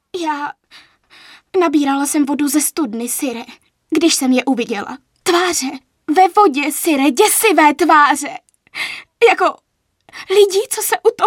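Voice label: female Czech drama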